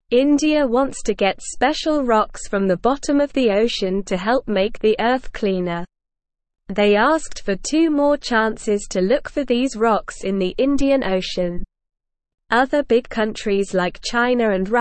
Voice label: English SLOW Reading; English Newsroom; India Wants More Chances to Find Special Rocks

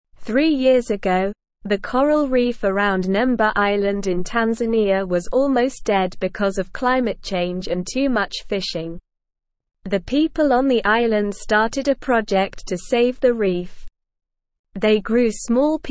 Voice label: English Newsroom Lower Intermediate SLOW Reading; Island People Save Coral Reef with Underwater

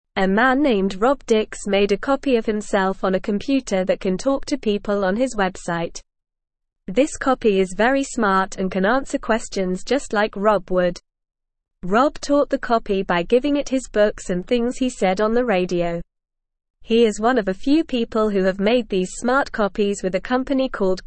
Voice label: English Newsroom Beginner NORMAL Reading; Rob Dix Creates Smart Copy of Himself on Website

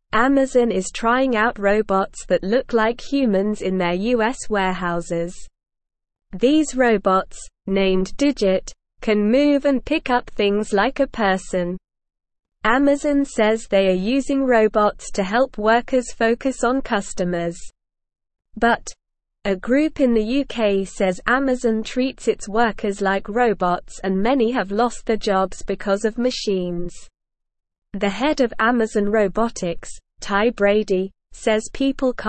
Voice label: English Lower Intermediate SLOW Reading; Amazon Tests Human Like Robots to Help Workers; English Newsroom

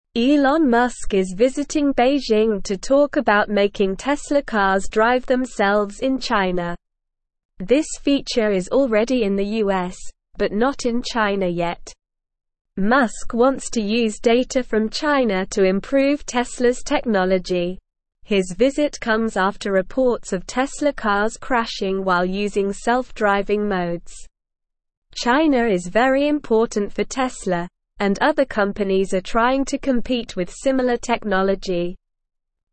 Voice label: English Newsroom Lower Intermediate SLOW Reading; Elon Musk in China to Make Tesla Cars Drive Themsel

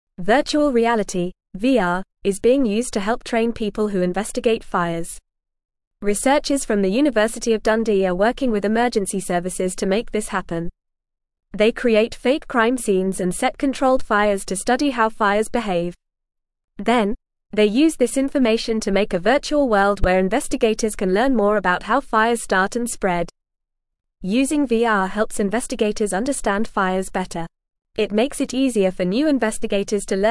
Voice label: English news reading, lower intermediate, fast; English Newsroom; Learning About Fires with Virtual Reality Fun

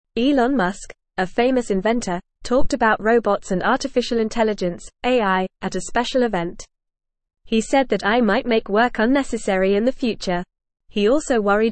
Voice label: English Lower Intermediate FAST Reading; Elon Musk and Rishi Sunak Discuss Smart Robots

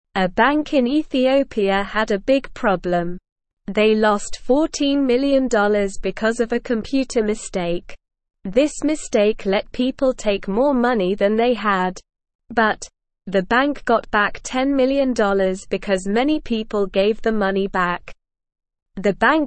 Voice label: English SLOW Reading; English Newsroom; Bank loses 14 million due to computer mistake

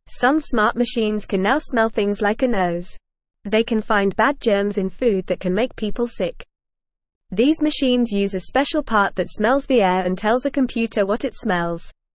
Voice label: English Beginner FAST Reading; Smart Machines Sniff Out Bad Food Germs; English Newsroom

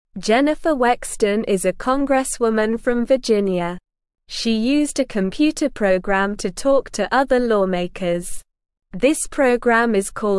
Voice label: English Newsroom Beginner SLOW Reading; Jennifer Uses AI to Talk in Congress

